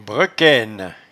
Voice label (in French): "brequaine", patois jura